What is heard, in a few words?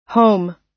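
"home", US English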